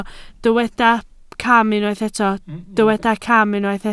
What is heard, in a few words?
"cam", Welsh